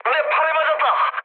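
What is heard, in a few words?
Korean damaged pilot mood med, speech; War Thunder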